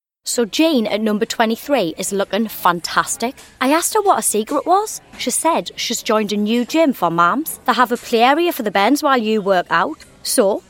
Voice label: Commercials; 20s-30s. Female. Studio. South African.